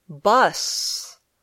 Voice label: "bus", English

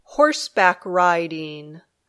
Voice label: "horseback riding", English

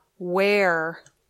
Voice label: "wear", English

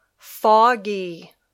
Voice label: "foggy", English

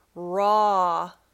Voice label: "raw", English

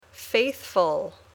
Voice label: "faithful", English

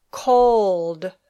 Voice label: "cold", English

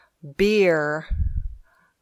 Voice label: "beer", English

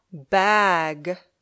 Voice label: "bag", English

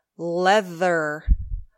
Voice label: "leather", English

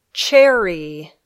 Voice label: "cherry", English

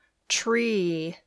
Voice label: "tree", English

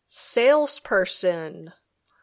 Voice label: "salesperson", English

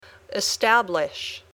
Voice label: "establish", English

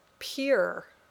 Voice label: "pier", English

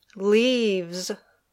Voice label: "leaves", English

